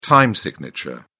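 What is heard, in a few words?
"time signature", British English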